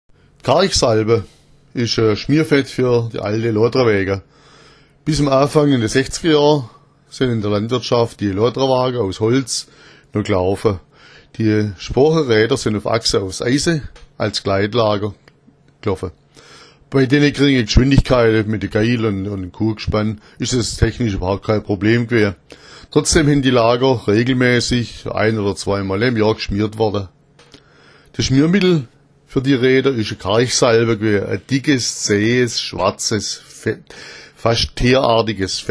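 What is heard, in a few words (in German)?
"karchsalba", schwäbisch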